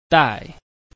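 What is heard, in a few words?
"dai", Chinese, tone 4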